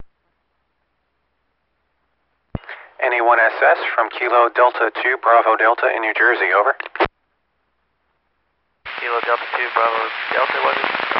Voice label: qso; frank culbertson